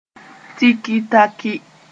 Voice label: "tiki-takɩ", Moore; exactly